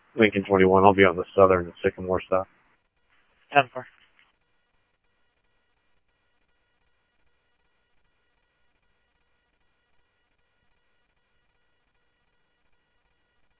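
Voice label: Mesa police department, scanner audio trunk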